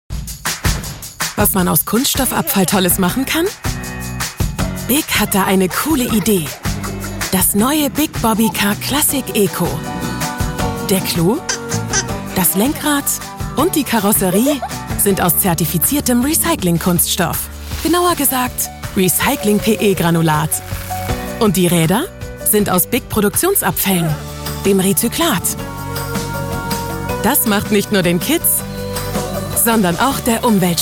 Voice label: Werbung; Bobby Car

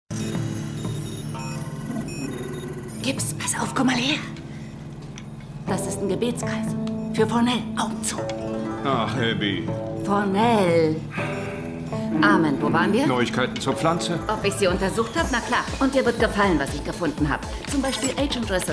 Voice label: Synchron; Navy CIS

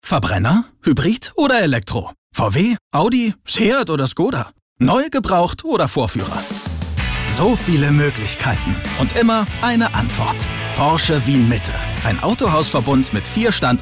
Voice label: Werbung, Commercial (Werbung), German; Porsche Wien Mitte

